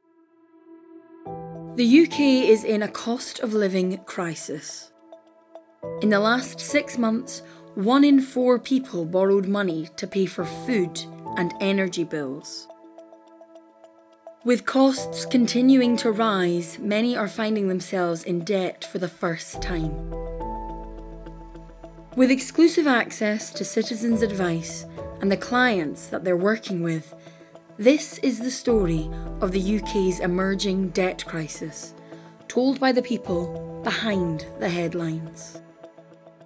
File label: Documentary; The Cost of Living Crisis